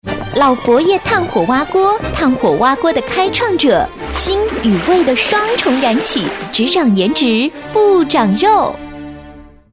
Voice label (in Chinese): 广告配音; 炭火蛙锅